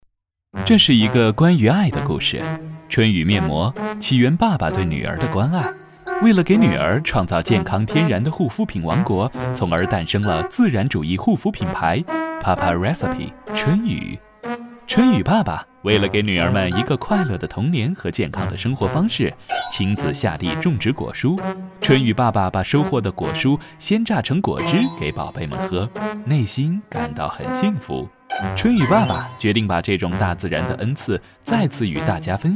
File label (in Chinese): 年轻阳光, 小清新, 广告; 春雨爸爸